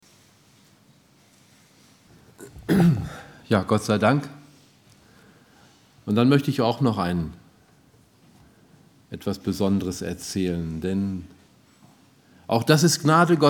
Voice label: Ansprache; Lagerversammlung 2016; Entschiedenheit

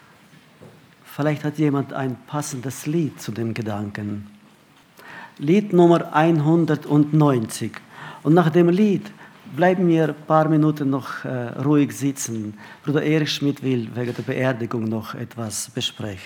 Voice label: Ansage; Die Ernte der Erde ist duerr geworden

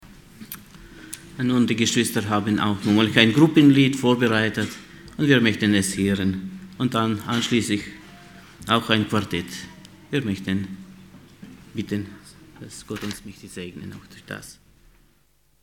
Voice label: Ansage; Jesus ruft dich

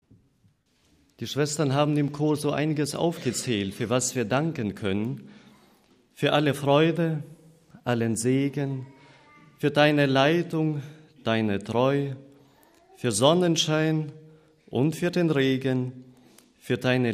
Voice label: Ansage

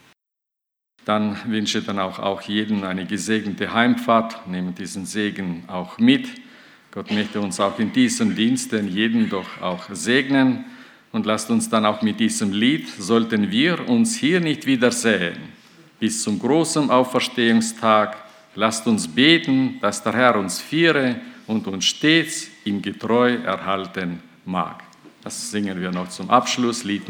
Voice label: Ansage; Lagerversammlung 2018; Dem Herrn zuerst

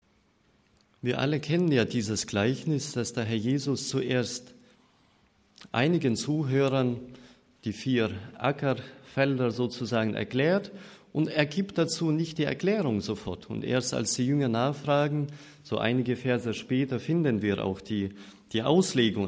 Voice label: Ansage

